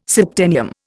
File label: "septennium", Indian